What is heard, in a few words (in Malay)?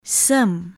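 "sem", Malay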